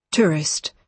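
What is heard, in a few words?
"tourist", British English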